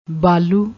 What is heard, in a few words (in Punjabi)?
"baaloo", Punjabi